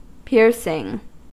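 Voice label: "piercing", American English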